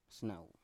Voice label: "snau" branch, Biak